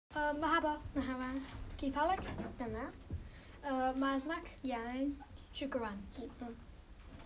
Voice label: Recording arabic